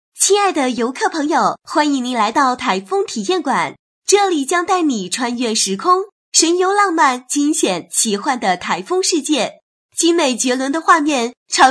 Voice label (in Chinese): 女声游乐场解说